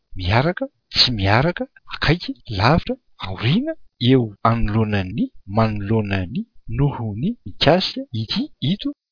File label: malagasy words